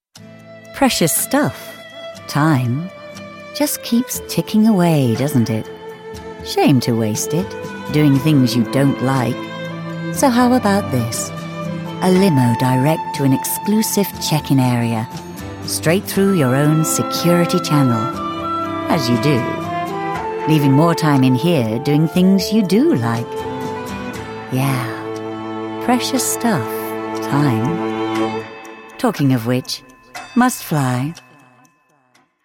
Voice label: advert; virgin atlantic

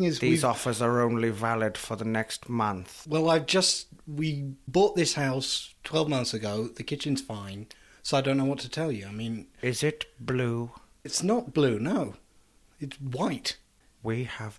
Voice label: sales call

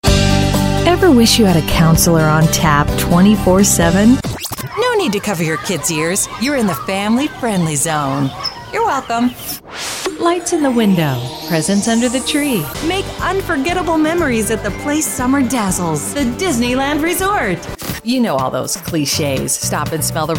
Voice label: a female voice voice over

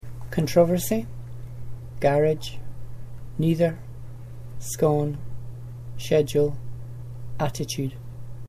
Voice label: Berwickshire Scotland male

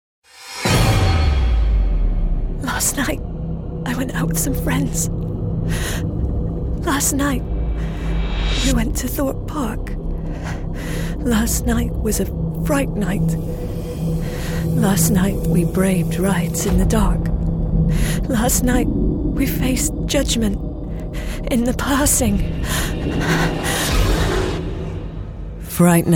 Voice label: advert; thorpe park

